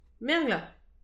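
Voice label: "merglan", Breton; petit precis de prononciation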